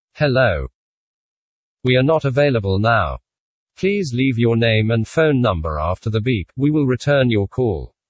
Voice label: male, UK